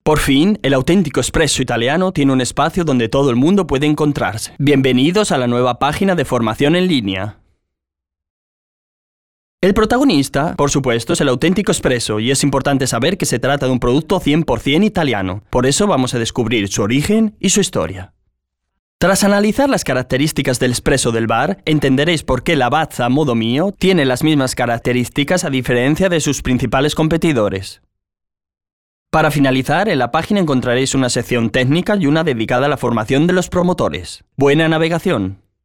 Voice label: lingua spagnolo uomo

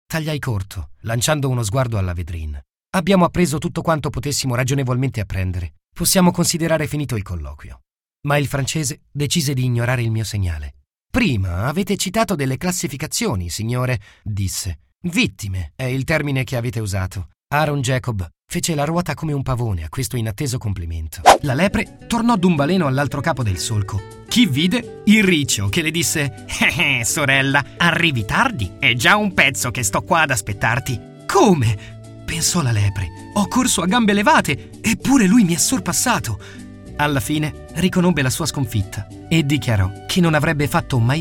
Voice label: audiolibri

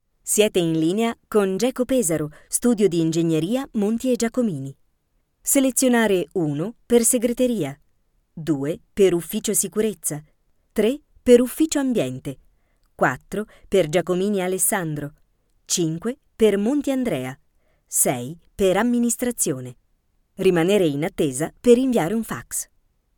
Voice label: segreterie

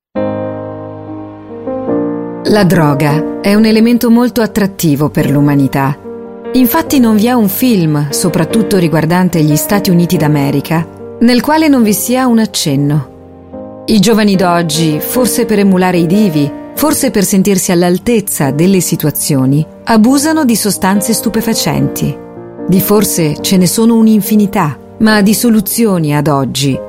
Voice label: documentari

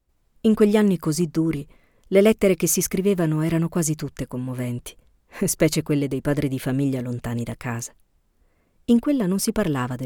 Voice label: audiolibri recitato

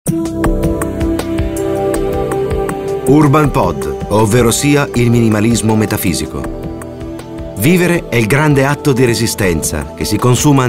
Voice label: documentari

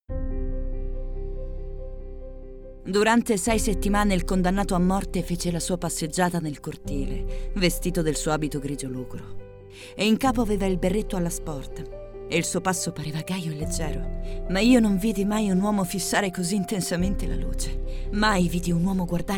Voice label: audiolibri

